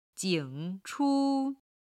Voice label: "jing3chu1", chinese